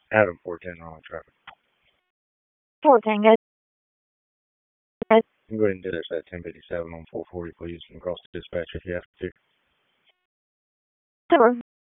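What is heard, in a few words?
Raleigh Police Southeast District Dispatch; wake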